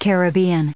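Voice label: "Caribbean", US English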